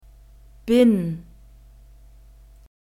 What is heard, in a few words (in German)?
"bin", German